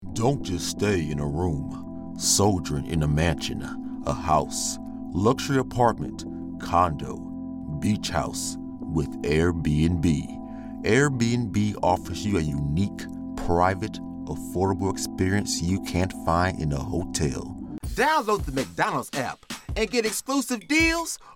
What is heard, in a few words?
Commericial